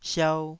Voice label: "siau2", Taiwanese syllable

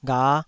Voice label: "gha5", Taiwanese syllable

